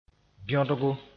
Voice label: "bẽodgo", Mooré; cut a thin strip